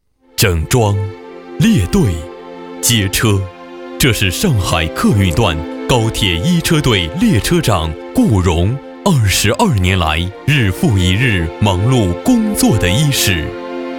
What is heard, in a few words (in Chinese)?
男 人物专题 上海高铁列车长